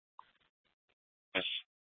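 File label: Police Dispatch, Scanner audio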